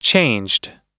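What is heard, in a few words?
"changed", US English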